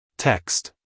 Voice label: "text", us male